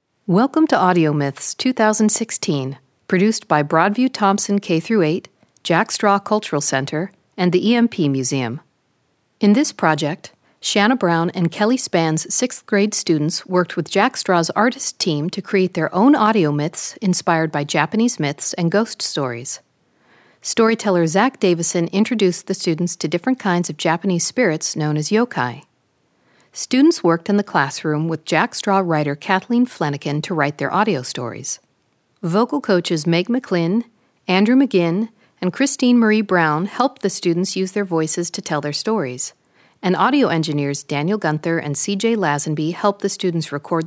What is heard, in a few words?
Opening Narration